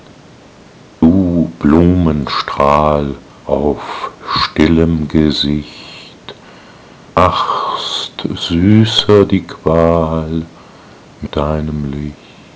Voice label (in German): Die weibliche Stimme